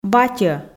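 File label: "батьӧ", Komi, female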